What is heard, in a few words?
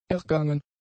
"ergangen", German; adjectives